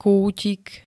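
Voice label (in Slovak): "kútik", Slovak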